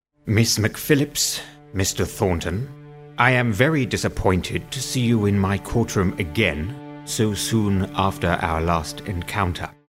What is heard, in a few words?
british authoritative